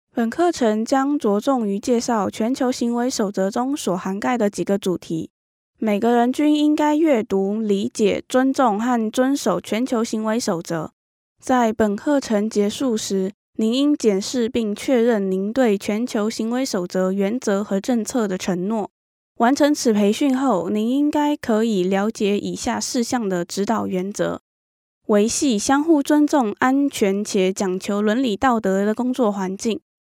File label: female hokkien voice over narrative